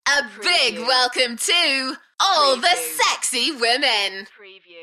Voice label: UK female A big welcome to all the sexy women Enthusiastic; beanstalk audio